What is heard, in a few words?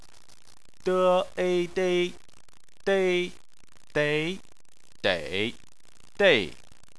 "dei", chinese